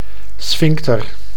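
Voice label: "sphincter", Dutch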